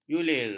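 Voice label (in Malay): "julir", Malay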